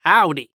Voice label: Human Cowboy Say "Howdy" Voice